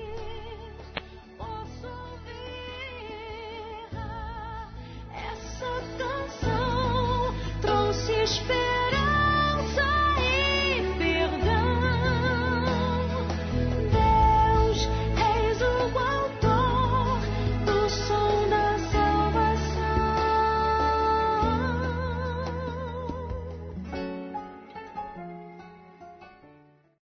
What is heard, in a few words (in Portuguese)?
ANIV ROMANTICO (F), Som do Amor